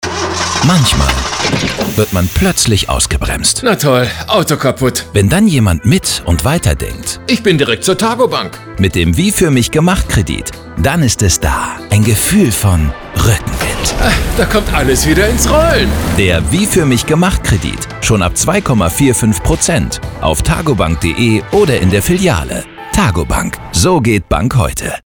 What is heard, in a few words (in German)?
Rueckenwind Radio Kredit